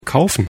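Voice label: "kaufen", German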